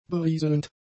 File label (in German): "berieselnd", German; adjectives